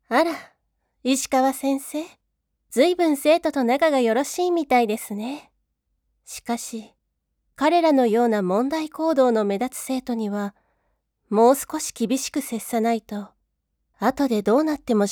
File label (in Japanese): セリフ 大人女性 静か 大人しい 教師